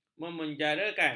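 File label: "memenjarakan", Malay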